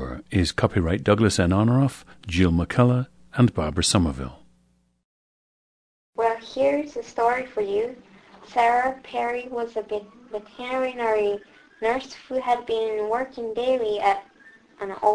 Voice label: japan